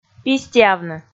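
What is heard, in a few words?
"пистиавны", Komi, female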